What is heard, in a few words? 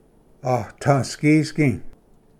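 "atasgisgi", Cherokee; ᎠᏔᏍᎩᏍᎩ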